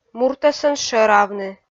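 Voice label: "муртӧсӧн шӧравны", Komi, female; kpv audio dict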